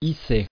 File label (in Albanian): "hisser", frengjisht